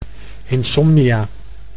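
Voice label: "insomnia", Dutch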